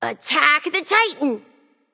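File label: attack male; smite; nike; voicepack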